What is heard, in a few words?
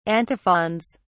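"antiphons", British English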